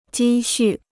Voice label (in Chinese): "积蓄", Chinese